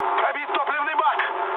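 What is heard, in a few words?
tank damaged, mood med, Russian; War Thunder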